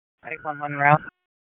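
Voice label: Public Safety Tactical, p25; wake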